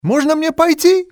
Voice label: can i go, male; XBOX; civ